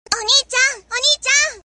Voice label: onii chan onii chan sound notification

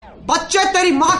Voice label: hindi dialogue; carryminati

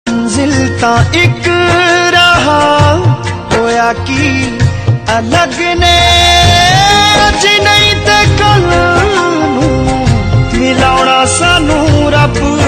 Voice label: pakistani sad shayari

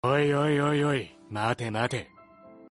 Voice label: "oi", notification

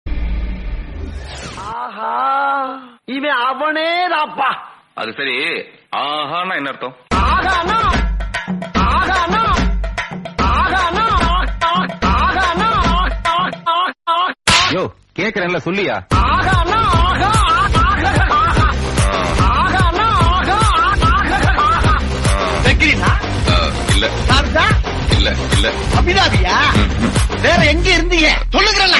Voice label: aahaan tamil; vadivelu

